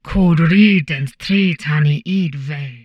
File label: humanoid portrait female; Stellaris; humanoids